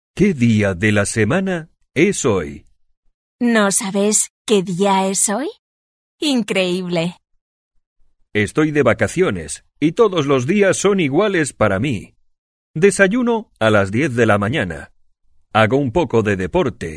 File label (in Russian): urok dialog